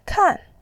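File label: "kan", Mandarin Chinese, fourth tone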